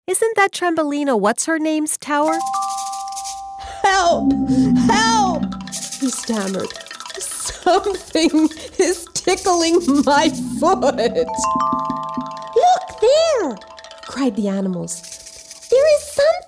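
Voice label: American English, acting, Freundlich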